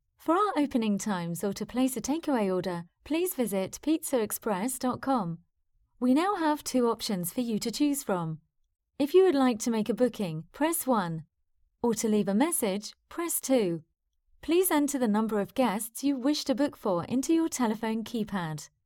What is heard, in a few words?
IVR; Pizza Express